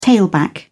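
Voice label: "tailback", British English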